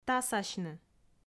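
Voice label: "тасасьны", Komi, female; audio dict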